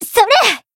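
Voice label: attack, Japanese; flammelapis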